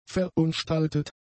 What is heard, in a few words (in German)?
"verunstaltet", German; adjectives